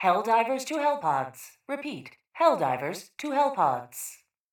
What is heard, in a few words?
PA System Call to Hellpods